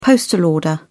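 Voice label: "postal order", British English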